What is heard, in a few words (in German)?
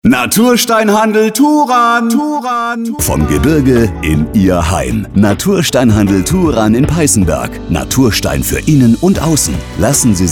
Spot; Natursteinhandel Turan